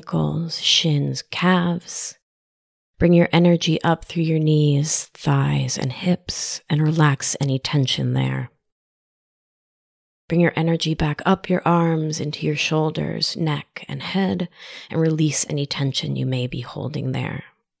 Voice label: Body Scan Meditation